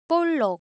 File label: Korean, Ideo Phones; Shape